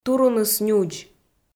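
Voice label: "туруныс нюдз", Komi, female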